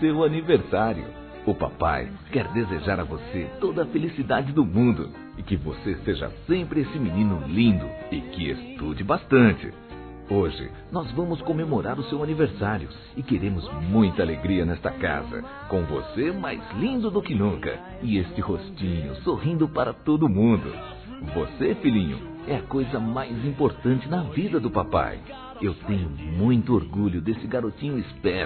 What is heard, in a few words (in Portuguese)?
Filho Criança Masculino; Aniversários; Aniv Familiar